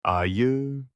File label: bear in turkish